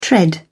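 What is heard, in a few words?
"tread", British English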